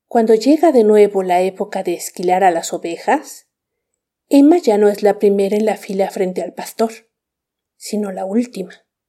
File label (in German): spanisch